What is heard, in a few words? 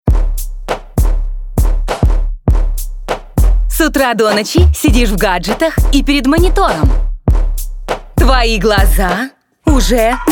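Ukrainian, female, commercial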